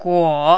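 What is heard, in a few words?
"guo", third tone, Mandarin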